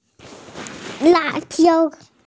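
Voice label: "la4 jiao1", Mandarin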